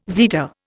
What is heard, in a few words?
"zeta", Indian